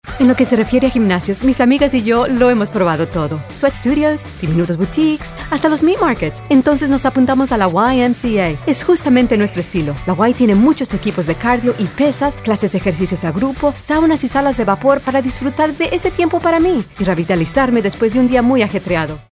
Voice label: announcer friendly